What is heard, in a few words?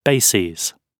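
"bases", British English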